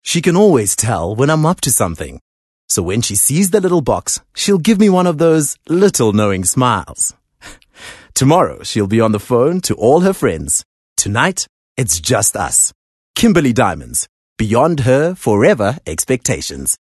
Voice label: English Conversational

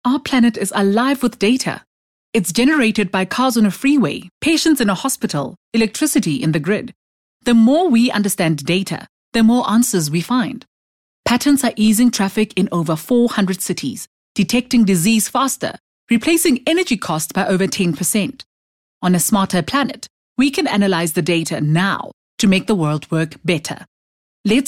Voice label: English Corporate; OSM Talent

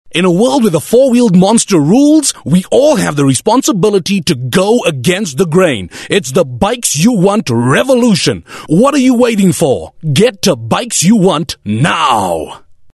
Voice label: English Hardsell

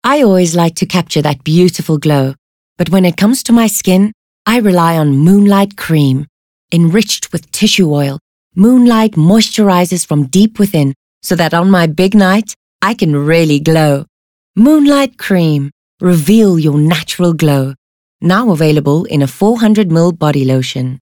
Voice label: English Soft Sell

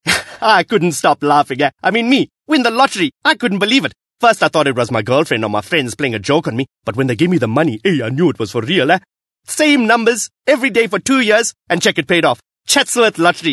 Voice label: English Conversational Indian Accent

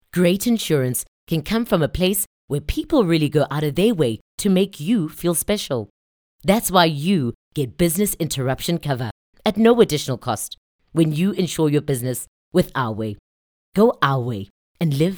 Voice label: English corporate; OSM Talent

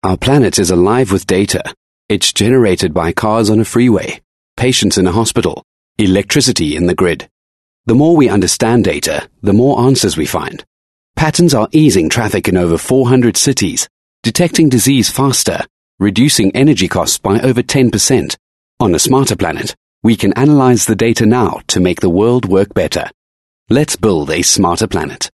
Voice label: English Corporate

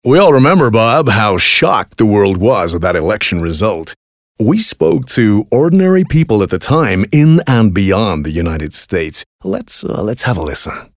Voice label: English accent American

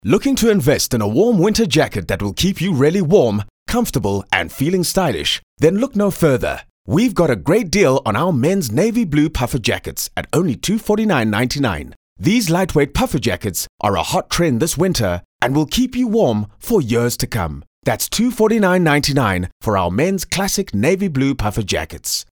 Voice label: English Informative; OSM Talent

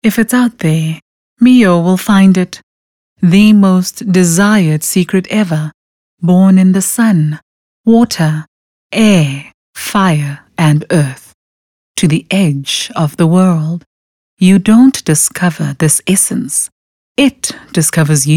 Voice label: English Dramatic

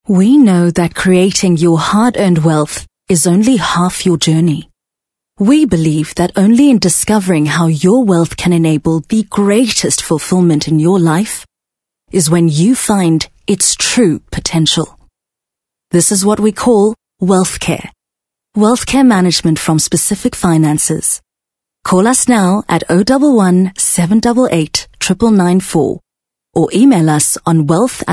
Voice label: English soft sell

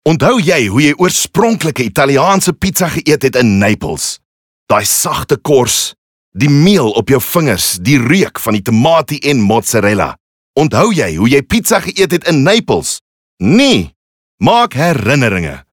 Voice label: Afrikaans bright

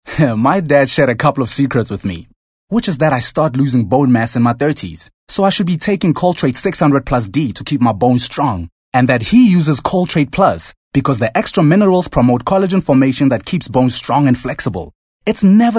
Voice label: English Conversational

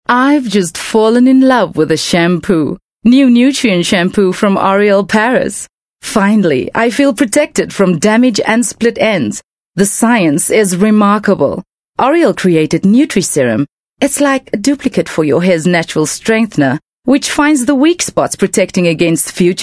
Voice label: English bright

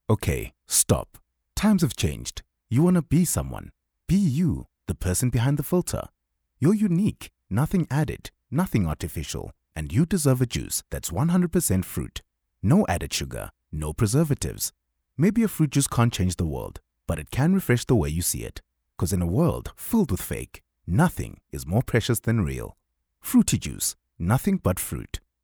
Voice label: English Conversational Real

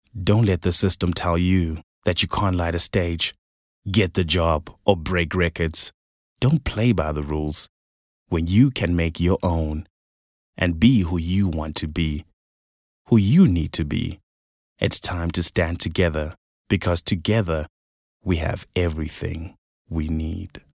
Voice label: English Dramatic

